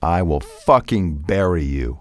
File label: cuss, male; kingpin; profanity